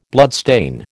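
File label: "bloodstain", American English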